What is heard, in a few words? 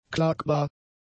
"klagbar", German; adjectives